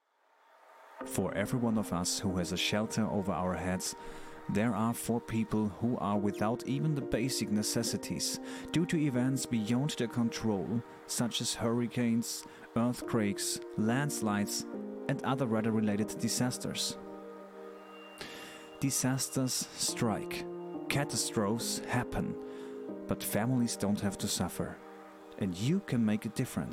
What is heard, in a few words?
english commercial